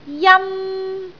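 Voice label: "jan1", Cantonese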